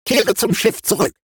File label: robot Pilots Land; World Of Warships